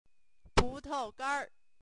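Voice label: "putaoganr", Mandarin Chinese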